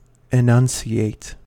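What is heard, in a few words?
"enunciate", US English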